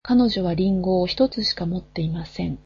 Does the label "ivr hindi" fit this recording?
No